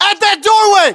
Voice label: US landmark near ext doorway, battlechatter, english